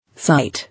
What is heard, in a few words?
"Sight", English